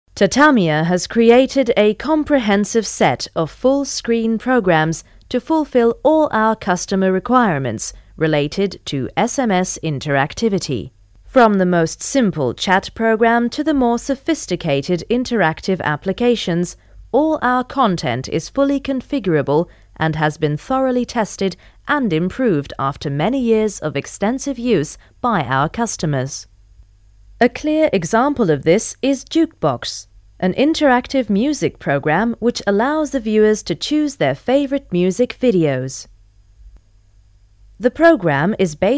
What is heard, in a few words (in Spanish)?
locutora inglesa